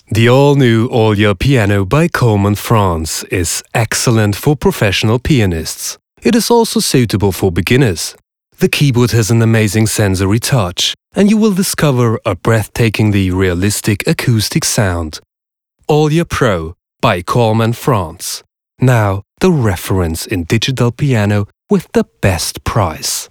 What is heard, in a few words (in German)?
Piano Englisch